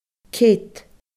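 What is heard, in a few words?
"cead", Scottish Gaelic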